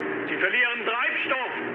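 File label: German tank damaged mood low, speech; War Thunder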